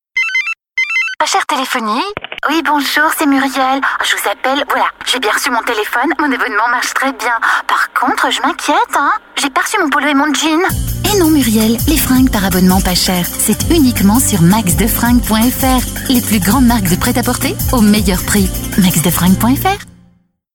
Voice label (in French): french character